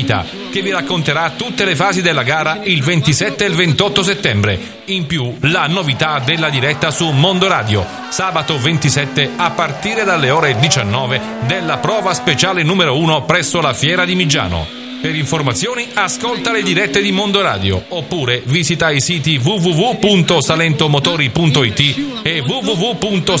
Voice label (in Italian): Spot, Rally; MondoRadio; 4 Comuni 2008